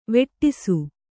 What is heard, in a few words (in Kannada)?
"ವೇಡಿಸು", Kannada